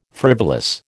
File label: "frivolous", American